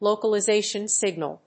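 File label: "localization signal", English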